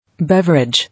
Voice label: "Beverage", English